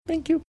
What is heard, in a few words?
cute thank you